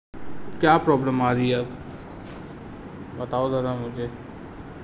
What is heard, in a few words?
voice message